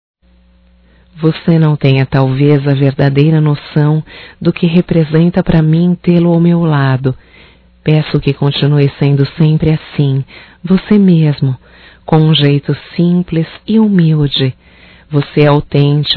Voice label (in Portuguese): Romântico Feminino; Românticas